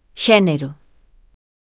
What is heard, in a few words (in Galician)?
voz sintética; Téxtil